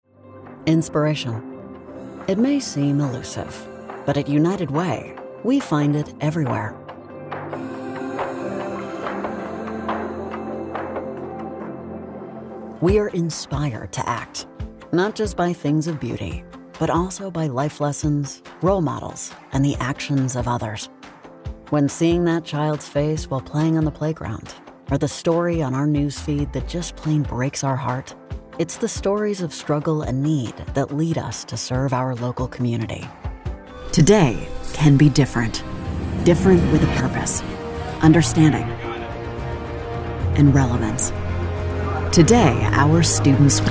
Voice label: Non Profit Charity Narration

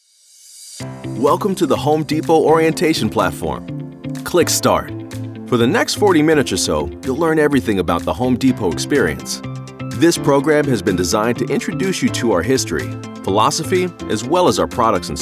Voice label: Elearning, Business Casual Voice Overs

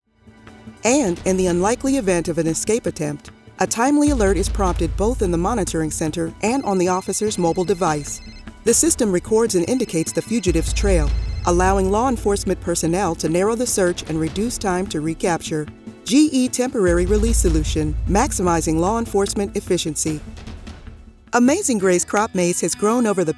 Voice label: Corporate, voiceover

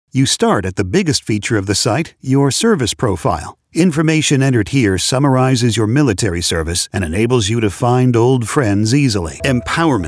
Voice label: eLearning, voiceover

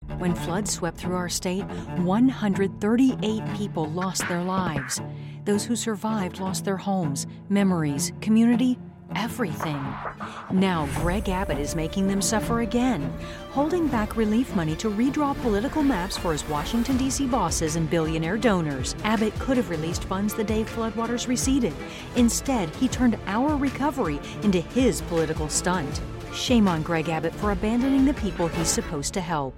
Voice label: Attack Ad; political; voiceover; texas; abbottflooding

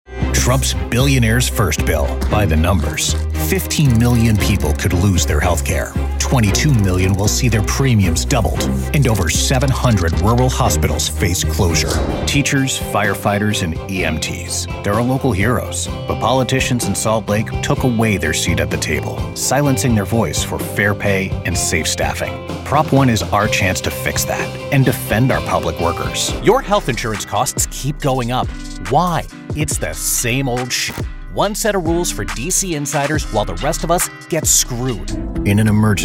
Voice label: Political, Young Adult; millennials gen z

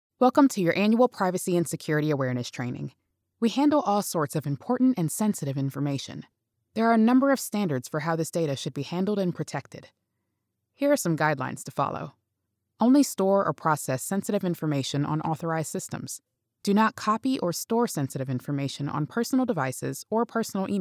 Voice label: eLearning

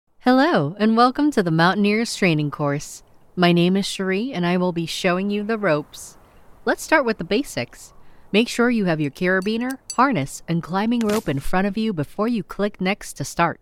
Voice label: Elearning

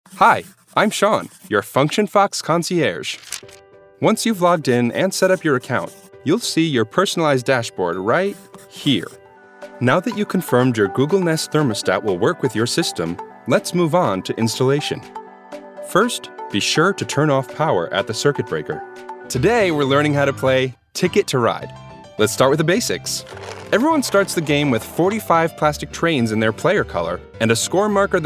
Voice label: Explainer

